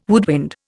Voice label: "woodwind", Indian